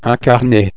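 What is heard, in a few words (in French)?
"carnet", French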